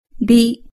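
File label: "bi", Japanese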